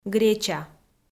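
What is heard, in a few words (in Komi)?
"греча", Komi, female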